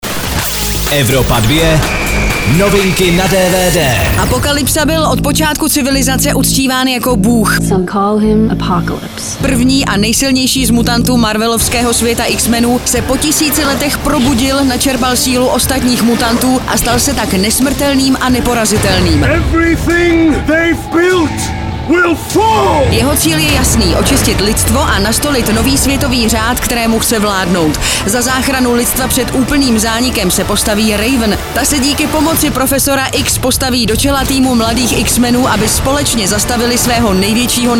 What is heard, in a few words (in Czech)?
DVD NEWS; evropa2; X Men Apokalypsa